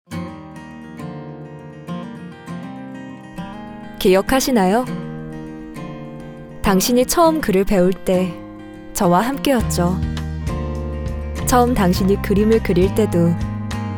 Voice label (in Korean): 광고 연필 따뜻한 편안한